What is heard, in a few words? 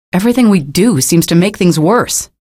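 citizen remarks, female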